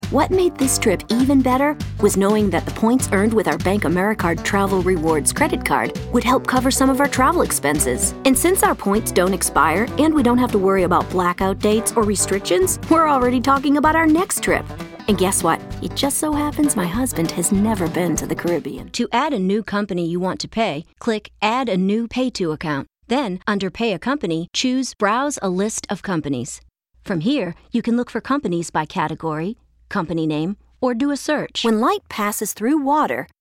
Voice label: Narration